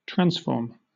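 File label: "transform", British English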